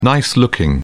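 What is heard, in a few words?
"nice looking", British English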